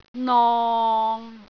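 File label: "nong6", Cantonese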